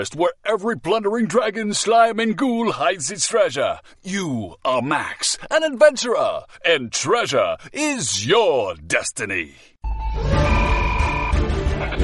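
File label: English Canadian M Gaming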